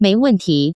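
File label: mei wenti, Taiwan Mandarin; chinese idioms; intermediate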